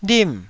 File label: "lim4", Taiwanese syllable